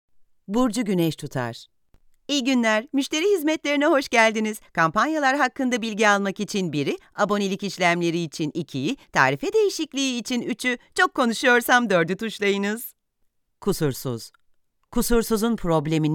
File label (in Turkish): turkce kadin